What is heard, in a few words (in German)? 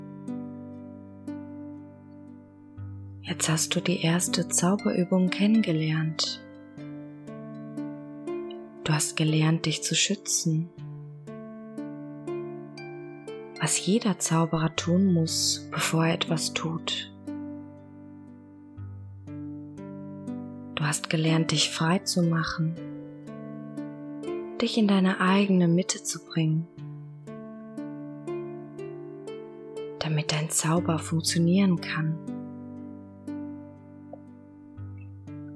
weibliche Stimme, Hypnose; Selbstbewusstsein stärken - Der Zauberlehrling - Asmita Hypnose